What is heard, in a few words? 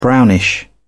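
"brownish", British English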